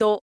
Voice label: info lady do